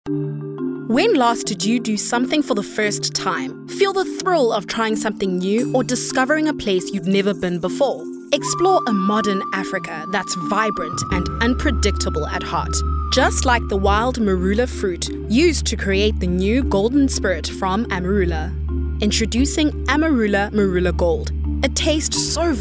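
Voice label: English Soft Sell Conversational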